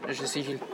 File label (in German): Dialekte; GHV Dialekte 2018